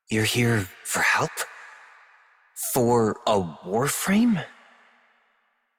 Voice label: Operator Voice; Warframe; Jade Shadows Quest